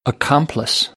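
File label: "accomplice", American English; AmericanBritish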